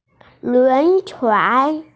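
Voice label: "lunchuan", Mandarin Chinese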